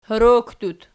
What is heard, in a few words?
"horookdut", Archi